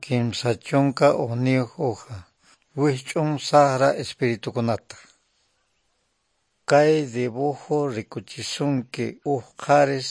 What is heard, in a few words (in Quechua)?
good news quechua norte de bolivia apolo